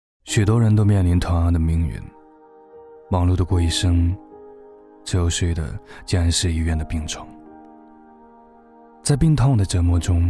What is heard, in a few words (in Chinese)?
台湾腔独白命运